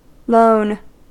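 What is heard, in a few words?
"lone", US English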